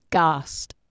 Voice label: "gast", Anglo Saxon